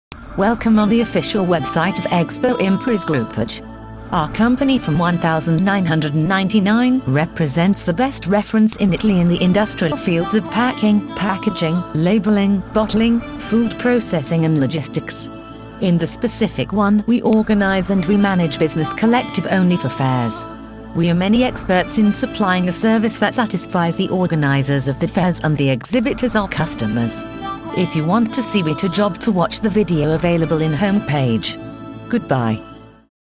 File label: MESSAGE ENG